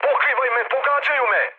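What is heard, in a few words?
Serbian aircraft damaged mood med, speech; War Thunder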